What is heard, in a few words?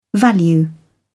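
"value", British English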